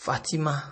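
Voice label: "fatima", Nigerian Pidgin, male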